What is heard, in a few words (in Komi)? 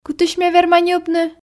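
кытысь ме верма ньӧбны, Komi, female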